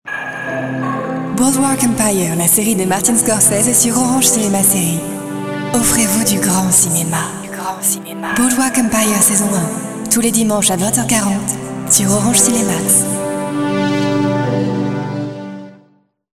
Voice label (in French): bande annonce; Boardwalk Empire